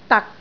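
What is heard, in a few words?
"dak6", Cantonese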